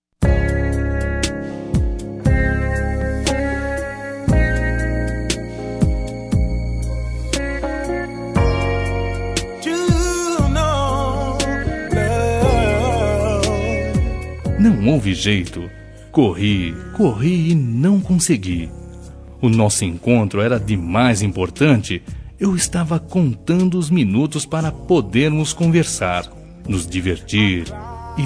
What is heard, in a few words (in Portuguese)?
DESCULPA DEI BOLO EM VOCE MASC; Telemensagens; Desculpas; Telemensagens 2017